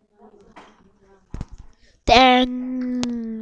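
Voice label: "ding", spoken word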